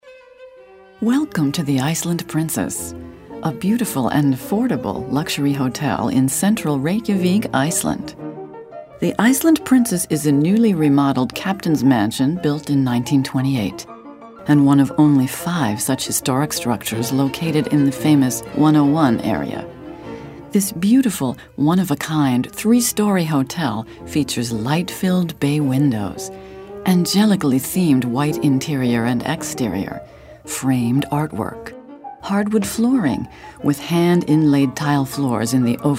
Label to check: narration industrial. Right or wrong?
Right